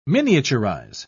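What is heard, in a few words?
"miniaturise", British English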